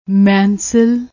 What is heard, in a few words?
"mensil", Punjabi